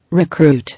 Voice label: "Recruit", English